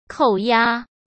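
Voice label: "扣押", Mandarin Chinese; hsk; chinese-flashcards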